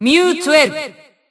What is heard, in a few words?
System Voice; BBCP; Bullet